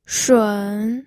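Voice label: "shun3", Mandarin Chinese pinyin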